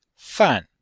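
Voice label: "fan4", Chinese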